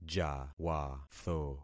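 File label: "jawatho", concatenated syllables; Affixing experiment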